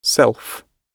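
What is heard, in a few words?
"self", British English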